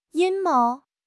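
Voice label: "阴谋", Mandarin Chinese; HSK6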